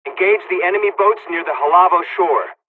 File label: attack, dialogs english; War Thunder